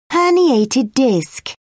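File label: "herniated disc", British English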